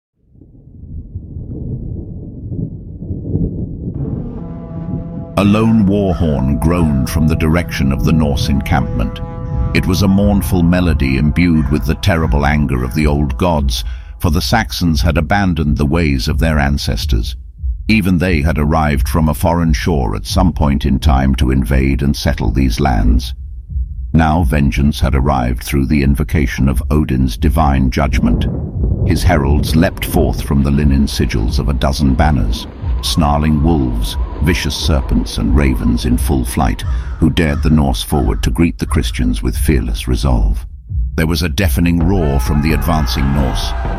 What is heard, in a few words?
Narration